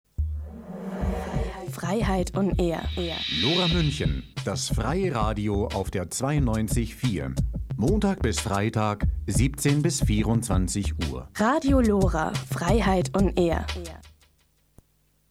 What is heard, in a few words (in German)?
Eigenwerbung; Attac; LoRa